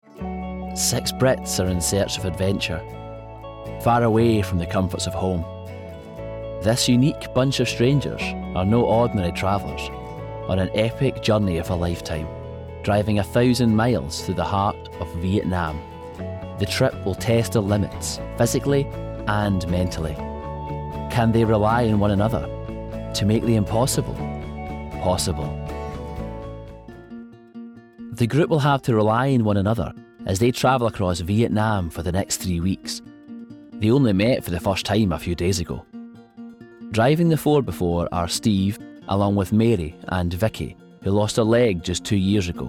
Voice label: Documentary